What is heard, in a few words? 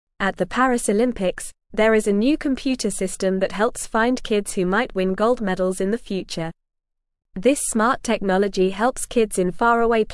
English Beginner NORMAL Reading; Smart Tech Helps Kids Find Their Best Sports